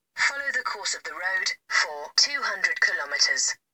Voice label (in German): Sprachmemo